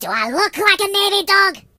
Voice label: Same team, voices Pirate; hedgewars